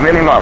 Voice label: "minimum", GPWS; A32X; GPWS-OLD

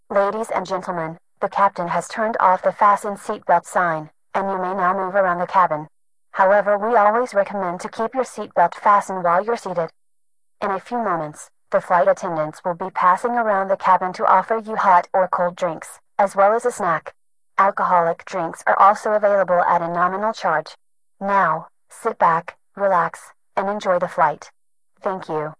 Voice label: seatbelt, Announce; A320

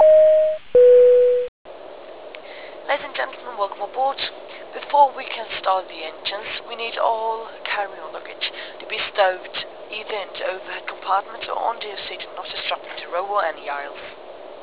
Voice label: welcome, announce; A350

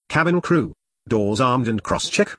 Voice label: doors depart, Announce; A32X

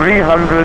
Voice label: altitude 300, GPWS; A32X

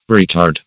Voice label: "retard", mk viii

